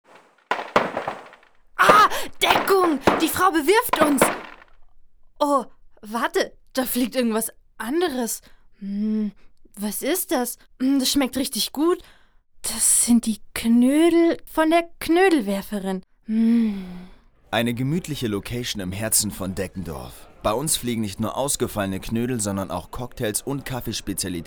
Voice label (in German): Werbung